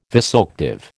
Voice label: "vasoactive", American